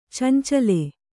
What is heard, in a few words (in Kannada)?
"ಚೆಂಚಲಿ", Kannada